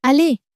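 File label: "allez", French, female; flashcards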